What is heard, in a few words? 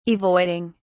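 "avoiding", British English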